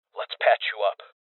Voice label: Voices Heal Male; Rebel Cops